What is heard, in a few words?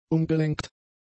"umgelenkt", German; adjectives